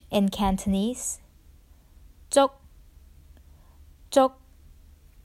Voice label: "Jook 粥", Cantonese; The Duke Who Didn’t: Glossary & Pronunciation Guide